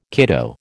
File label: "kiddo", American